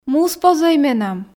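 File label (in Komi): "муспозӧй менам", Komi, female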